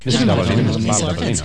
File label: mumbling